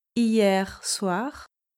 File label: "hier soir", French